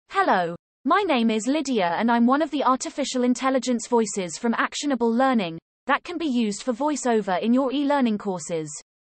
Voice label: Female Voice-Over; animation